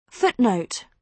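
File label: "footnote", British English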